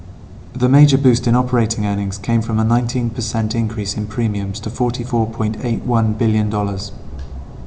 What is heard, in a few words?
Reverb far